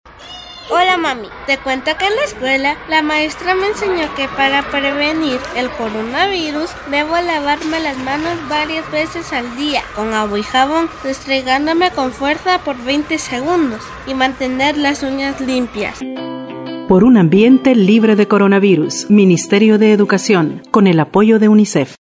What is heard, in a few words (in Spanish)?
Lavar manos espanol; prevencion